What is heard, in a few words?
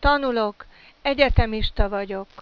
"tanul", Hungarian